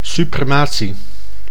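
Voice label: "suprematie", Dutch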